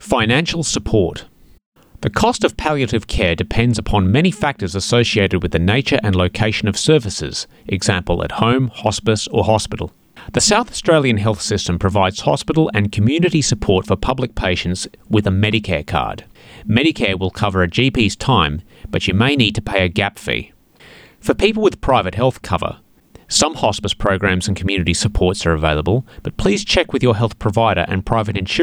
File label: English; Palliative Care; Financial support